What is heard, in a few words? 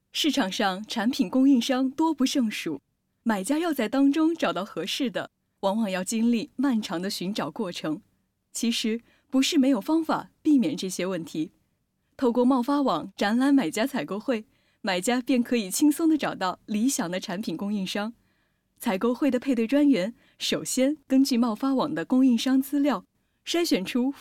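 Mandarin female; Mandrain female DEMO vo3; Mandarin 普通話; Famale Voice 2 女聲2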